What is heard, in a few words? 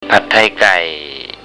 "pat thai gai" Thai